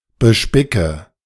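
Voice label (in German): "bespicke", German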